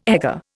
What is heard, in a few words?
"egger", Indian